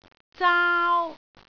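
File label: "zaau1", Cantonese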